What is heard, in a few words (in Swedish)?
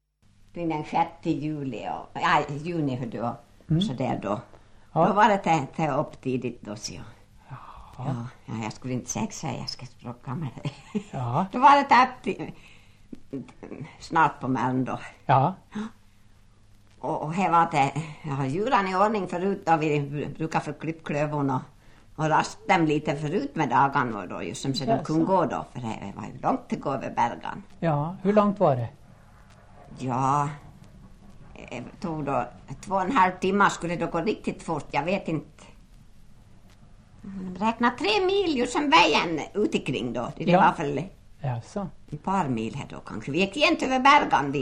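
Ål Dalarna, dialekter; Folkminnen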